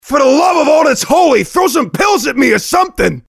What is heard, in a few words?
mobster medic angry